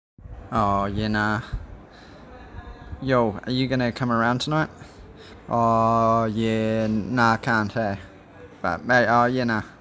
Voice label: "Yea nah", New Zealand; common New Zealand slang words and phrases